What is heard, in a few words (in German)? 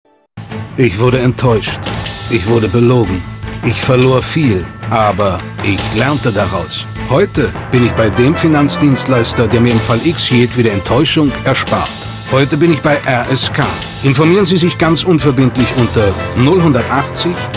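Radiospot Beispiele Banken & Finanzen, Kompetent, stabil, seriös; Radiowerbung; RSK; Enttaeuscht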